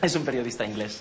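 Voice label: Voice Acting es Male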